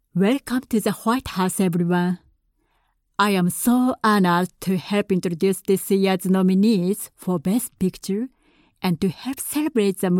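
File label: Japanese, English accent; GoLocalise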